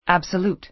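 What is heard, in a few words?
"absolute", American English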